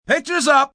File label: Pictures Up, assistant director, male 'Pictures up'; Film, movie, direction; human voice speaking; Talking Sound Effects